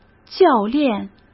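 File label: "教练", Mandarin Chinese; chinese-flashcards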